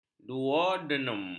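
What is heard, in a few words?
"duodenum", Malay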